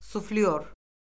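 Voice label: "suflyor", Azerbaijani; Orfoqrafiya Lüğəti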